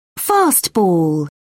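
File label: "fastball", British English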